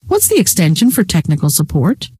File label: rant, scientist female